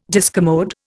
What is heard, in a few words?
"discommode", Indian